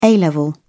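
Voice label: "a level", British English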